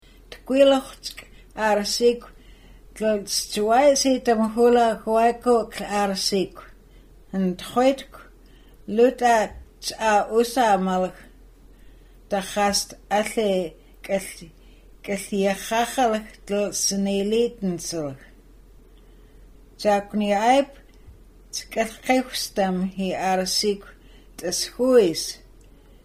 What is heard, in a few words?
Nsyilxcen; Redlich Pond